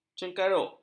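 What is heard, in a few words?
"cengkaruk", Malay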